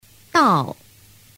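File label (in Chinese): dao, Chinese; phan biet am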